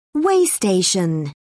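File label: "way station", British English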